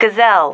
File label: "gazelle", female